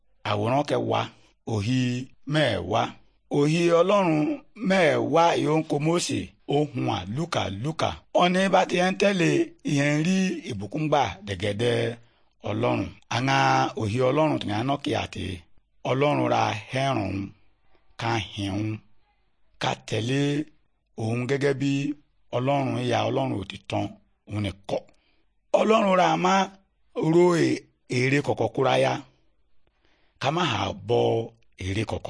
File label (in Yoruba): good news yoruba, bible